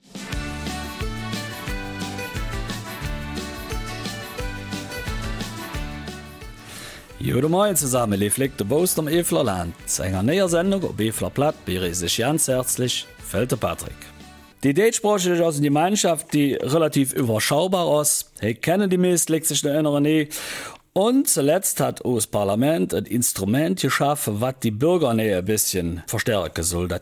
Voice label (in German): Mundart Süd